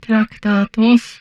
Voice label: humanoid portrait female; Stellaris